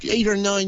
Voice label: "eighT or nine", Irish